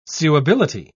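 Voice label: "suability", British English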